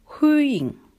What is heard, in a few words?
welcome shanghainese